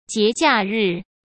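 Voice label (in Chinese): "节假日", Mandarin Chinese; chinese-flashcards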